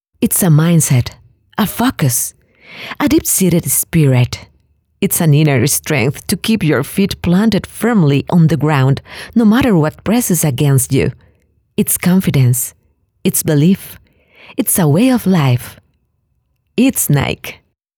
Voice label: English